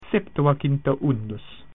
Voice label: "seventy one", Latin

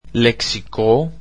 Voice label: "dictionary" greek

